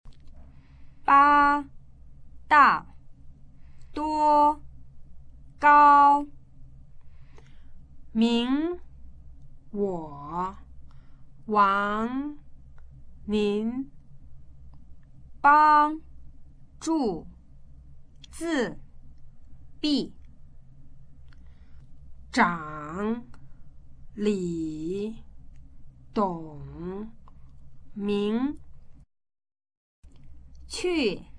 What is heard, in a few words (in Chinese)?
跟讀